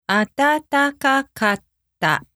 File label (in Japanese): あたたかかった slow